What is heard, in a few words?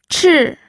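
"chi", Mandarin Chinese, fourth tone; pinyin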